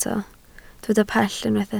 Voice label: "pell", Welsh

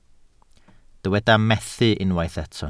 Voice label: "methu", Welsh

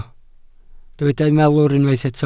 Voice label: "malwr", Welsh

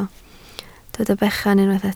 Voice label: "bechan", Welsh

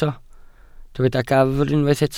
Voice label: "gafr", Welsh

